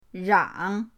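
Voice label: "rang", Mandarin pinyin, third tone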